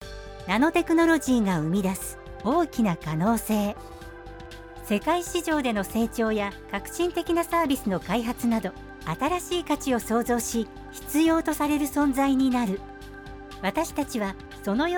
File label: Japanese corporate videos